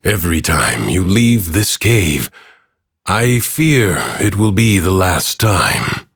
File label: Ambient Bark Fear, en; Warframe; Duviri; Teshin